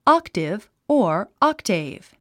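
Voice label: "octave", English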